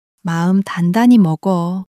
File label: sadness, monologue